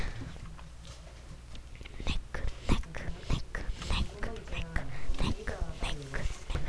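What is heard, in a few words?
"neck", spoken word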